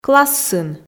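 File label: "классын", Komi, female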